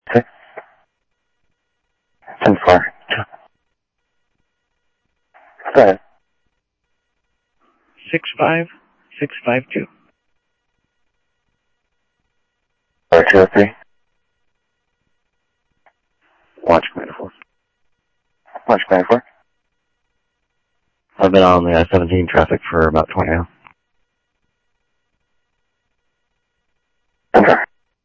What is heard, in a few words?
Sheriff, scanner audio; SoutheastCenMesa; MCSO - EAST Sheriff-EastCnty